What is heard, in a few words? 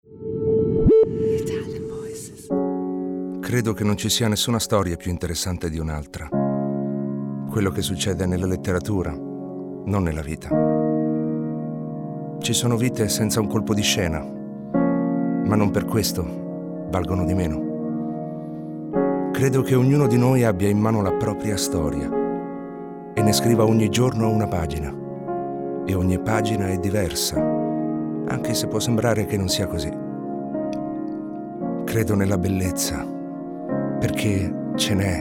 ITALIAN VOICES, male voices; Naked Reel